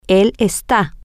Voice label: he is temporary, spanish, F